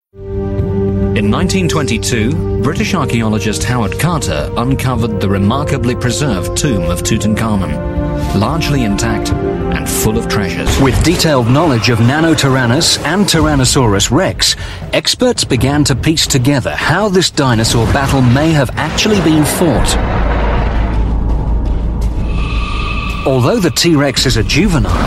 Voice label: UK English Narration Reel

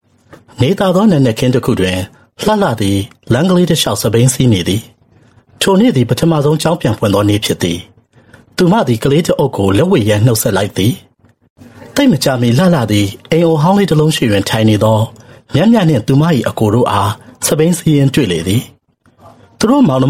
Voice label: Burmese Male Narration

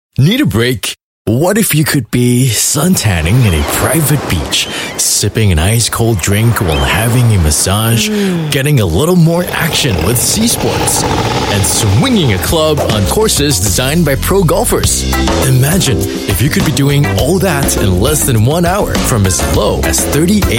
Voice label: Asian English Commercial; Bintan Lagoon

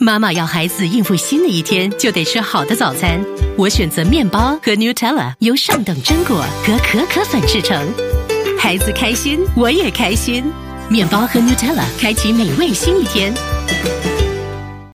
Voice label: Mandarin Commercial, China Female; Nutella

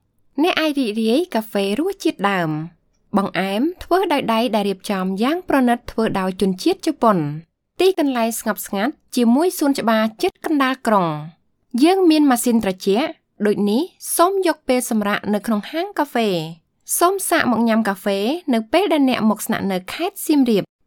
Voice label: Khmer female voice sample

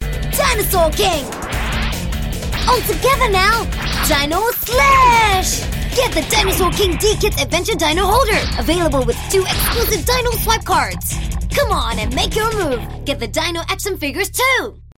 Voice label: Asian English character voices

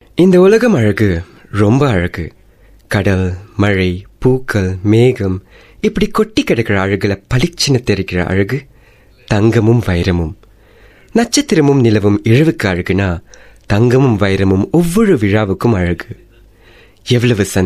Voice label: Tamil, India Male; Malabar Gold

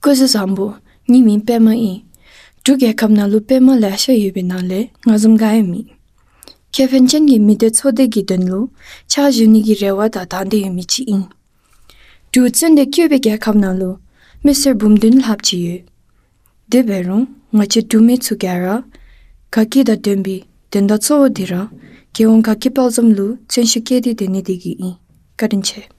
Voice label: Dzongkha Female Sample

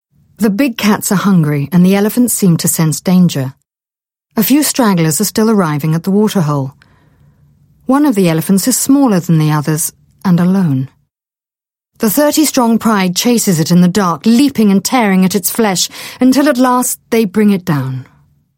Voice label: UK English Documentary Wildlife

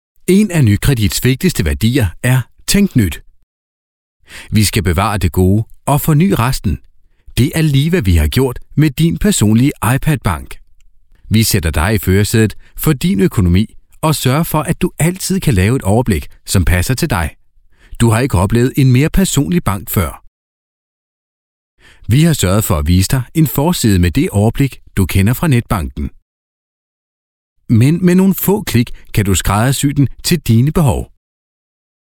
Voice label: Danish, Male

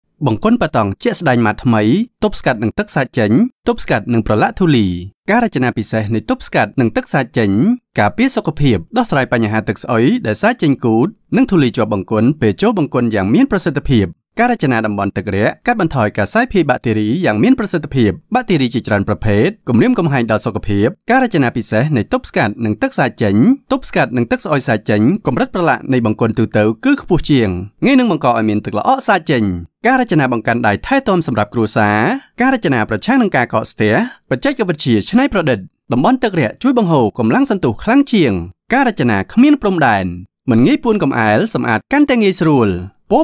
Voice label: Khmer Male Voice

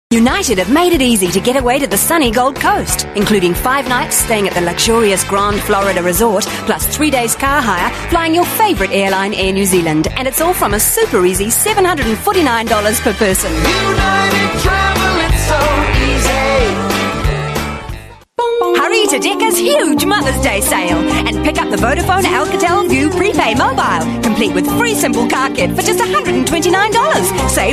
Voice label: NZ English

